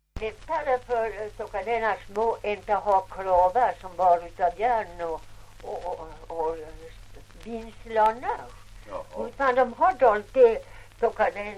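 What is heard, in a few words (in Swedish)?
Ovansjö Gästrikland, dialekter, svenska sagor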